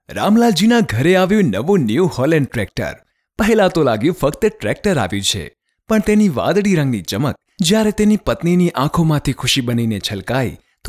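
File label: Gujarati, male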